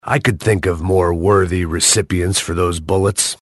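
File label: "sentry ahead", male; tf; max payne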